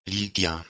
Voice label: "ligja", Proto-Germanic